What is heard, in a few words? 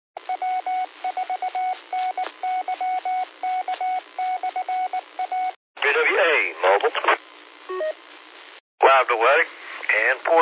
qso rec simplex logic